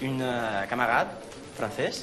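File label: Voice Acting es Male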